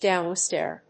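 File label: "downstair", English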